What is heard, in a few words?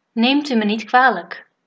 excuse me, dutch